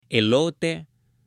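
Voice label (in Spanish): "elote" Spanish, female; ALIMENTOS; AppLenguaje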